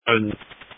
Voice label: Sheriff Dispatch